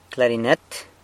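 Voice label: "clarinet", San Lucas Quiavini Zapotec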